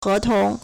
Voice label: "he2tong", Chinese